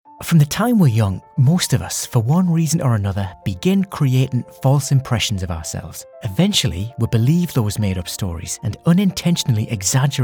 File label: Documentary